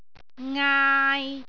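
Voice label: "ngaai3", Cantonese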